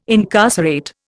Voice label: "incarcerate", Indian

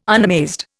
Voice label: "unamazed", Indian

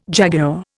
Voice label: "jaguar", Indian

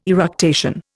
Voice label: "eructation", Indian

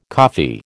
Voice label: "coffee", American

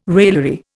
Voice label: "raillery", Indian

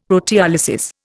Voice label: "proteolysis", Indian; Dictionary

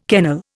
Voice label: "kennel", Indian

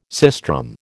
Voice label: "sistrum", American

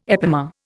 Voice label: "epimer", Indian

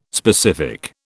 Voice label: "specific", British

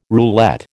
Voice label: "roulette", American

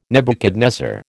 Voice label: "Nebuchadnezzar", American

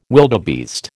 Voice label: "wildebeest", American

